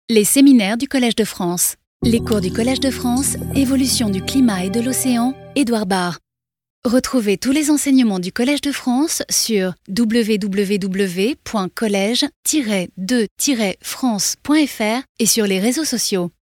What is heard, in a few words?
Podcast; Collège de Fr